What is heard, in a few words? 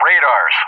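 "radars" English, speech; JTAC; Target; DCS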